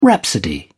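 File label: "rhapsody", British English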